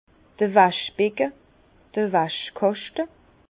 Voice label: "waschbecke", Bas Rhin; vin; bac à linge

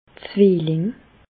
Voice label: "zwilling", Alsace, Bas Rhin; jumeaux; medecine

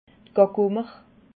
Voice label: "gagummer", alsace

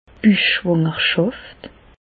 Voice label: "buchschwangerschaft", Bas Rhin; medecine; grossesse extra utérine

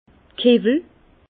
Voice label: "kiwwel", Bas Rhin; vin; cuves

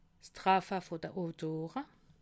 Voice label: "traffe", alsace

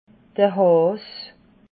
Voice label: "haas", alsace

